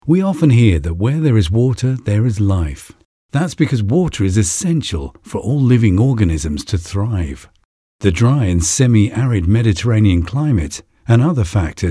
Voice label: British RP BBC Conversational